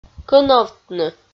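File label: "кӧновтны", Komi-Zyrian, female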